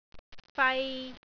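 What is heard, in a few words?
"fai3", Cantonese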